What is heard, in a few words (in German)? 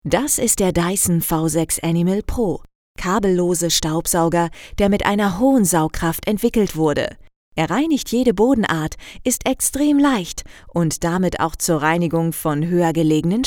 werbespot; dyson